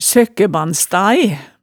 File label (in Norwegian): "søkkæbandstae", hemsedalsmaalet tale